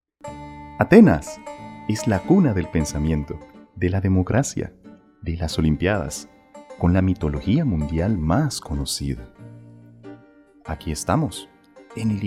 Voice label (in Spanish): narra